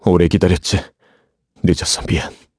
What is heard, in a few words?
vox, kr; Clause ice; kingsraid